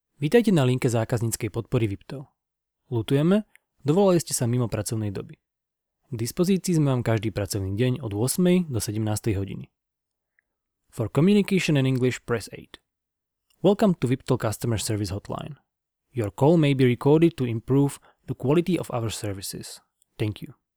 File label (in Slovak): hlas muz; VIPTel